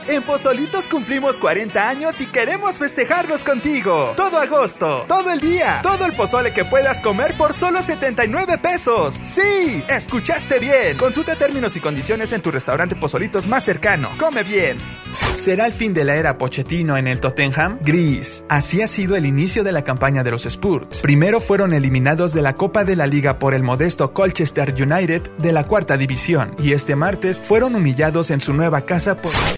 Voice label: Spanish Neutral